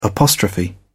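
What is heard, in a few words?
"apostrophe", British English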